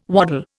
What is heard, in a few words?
"waddle", Indian